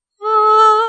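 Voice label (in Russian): "ля", Russian; bukvar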